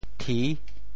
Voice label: "thi6", Teochew; 潮州; 潮州府城POJ; 调: 弟